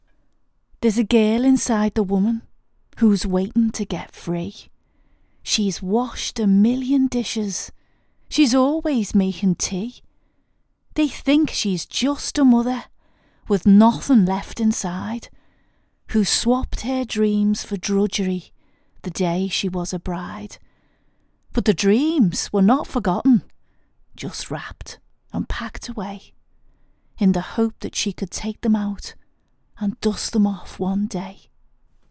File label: SCOUSE READ